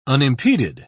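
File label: "unimpeded", British English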